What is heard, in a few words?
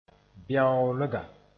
"bɛoolga", moore; tree-stump